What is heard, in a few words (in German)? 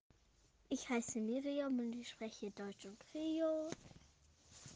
Krio Vorstellung